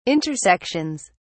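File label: "intersections", British English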